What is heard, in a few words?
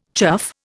"chuff", Indian